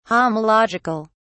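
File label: "homological", British English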